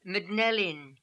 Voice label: "Mdnel In", pronounciations; Fourth Way; Beelzebub